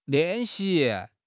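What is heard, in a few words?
"lian4 xi2", Chinese; HSK3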